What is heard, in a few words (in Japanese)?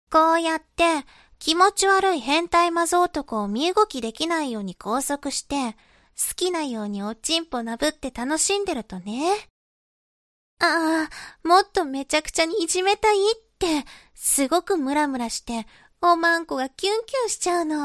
懲罰中音声, ASMR